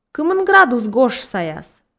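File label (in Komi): кымын градус гож саяс, Komi, female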